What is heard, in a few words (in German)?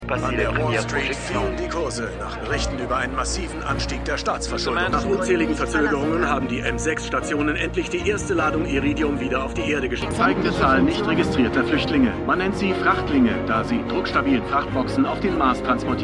Div Maennl Nachrichtenstimmen; For All Mankind